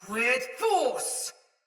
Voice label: Attack Heavy Soldier Mace Agent Female; Battle; Sinecure Melee

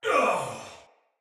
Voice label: Death Finisher Soldier Male; Battle; Barks; Dialog; Sinecure Soldier Male A

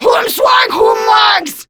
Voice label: Reload Grineer Female; Warframe; Battle Barks

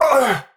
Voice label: Pain; Warframe; Tau; Dax; Knight

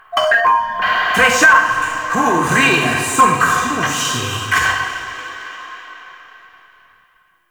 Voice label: Interior Orbital Station Announcement; Warframe; Tau

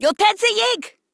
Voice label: Cover Me Female; en; Modular Corpus; Battle Barks

